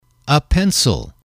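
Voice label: "a pencil", English, male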